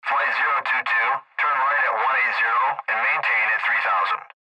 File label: Airtraffic Control Maintain